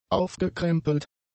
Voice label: "aufgekrempelt", German; adjectives

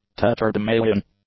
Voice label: "tatterdemalion", English; adjectives

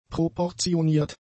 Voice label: "proportioniert", German; adjectives

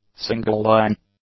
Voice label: "single-line", English; adjectives

